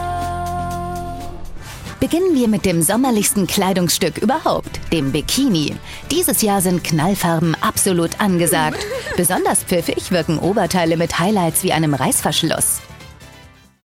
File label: Fashion Quickie Off Voice; SIXX